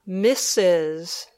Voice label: "Misses", English

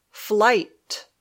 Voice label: "flight", English

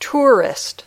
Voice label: "tourist", English

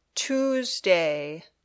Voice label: "Tuesday", English

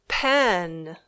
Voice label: "pen", English

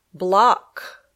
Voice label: "block", English

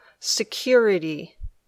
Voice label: "security", English

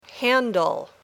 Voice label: "handle", English

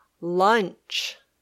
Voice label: "lunch", English

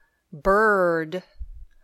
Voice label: "bird", English

